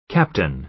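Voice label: "captain", American English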